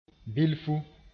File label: "bilfu", moore